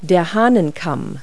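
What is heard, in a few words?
"cockscomb", English word; Multi-Lingual Talking Picture Dictionary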